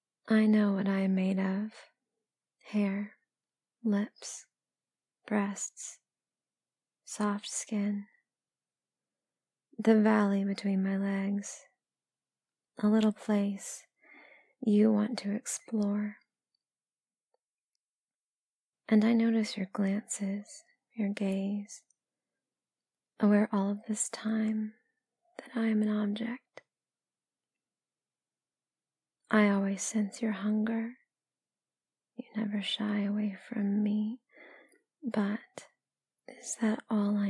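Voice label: female for any, Erotic Poetry, Sadness, Desire; Body Parts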